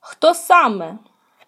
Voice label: dialogue, Ukrainian